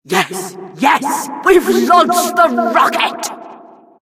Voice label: announcer rocket launch

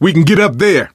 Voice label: misc directional, coach, survivor voice

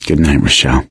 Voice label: survivor mourn, survivor voice; Left 4 Dead 2; Source Engine; video games; gambler

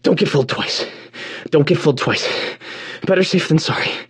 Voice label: radio initial broadcast

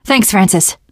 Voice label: thanks, teen girl; survivor voice

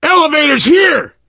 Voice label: world hospital, player survivor voice biker

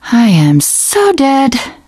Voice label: opening door, teengirl; video game; Left 4 Dead; Source Engine; survivor

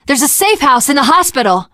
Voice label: world hospital, teen girl; survivor voice

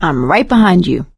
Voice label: lead on, survivor voice; source engine; left 4 dead 2; producer

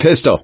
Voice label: take pistol, survivor voice; source engine; left 4 dead 2; gambler

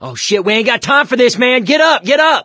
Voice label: revive friend loud, survivor voice mechanic; source engine; left 4 dead 2

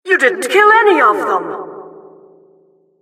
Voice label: announcer flawless defeat

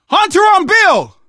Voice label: hunter pounced, survivor voice; Left 4 Dead 2; Source Engine; video games; manager

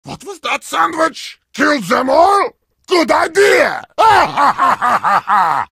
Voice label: heavy sandwich taunt, vo

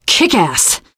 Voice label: nice job, teengirl; survivor voice

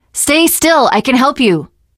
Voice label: heal other, teen girl; source engine; left 4 dead 2; survivor

